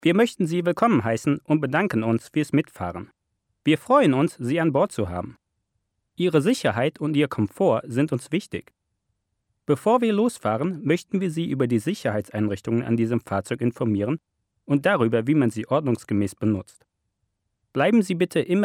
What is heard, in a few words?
Passenger Safety Instructions german; bus